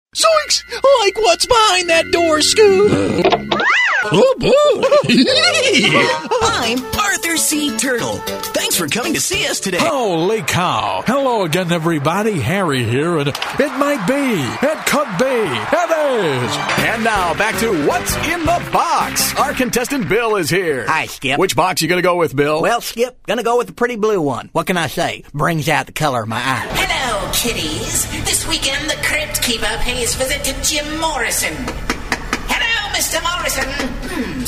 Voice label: men animation